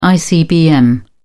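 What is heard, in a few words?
"icbm", British English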